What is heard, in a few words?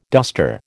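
"duster", American English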